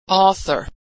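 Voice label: "author", American English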